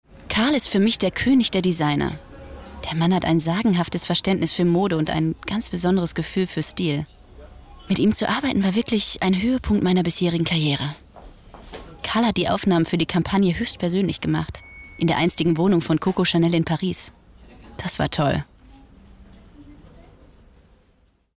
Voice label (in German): testimonial model